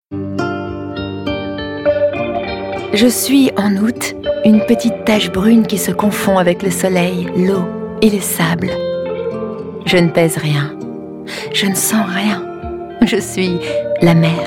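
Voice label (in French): Nostalgie solaire, comédienne voix off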